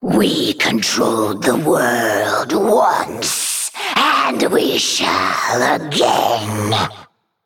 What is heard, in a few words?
Female Naga; Darkscale; Rexxar Mission5 Loss